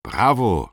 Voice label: positive feedback, german; aronwk collection; ndaudio